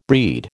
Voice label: "breed", American